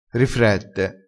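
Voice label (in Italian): "rifrette", dialettale, crespinese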